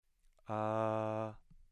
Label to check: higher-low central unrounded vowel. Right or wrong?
Right